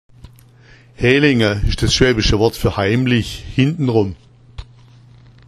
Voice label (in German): hlenga, schwaebisch